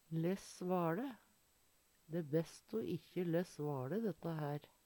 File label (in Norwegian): less vale, Numedalsmål; ikkje bry seg om, oversjå; Uttrykk